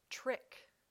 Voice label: "trick", English